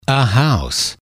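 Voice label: "a house", male, English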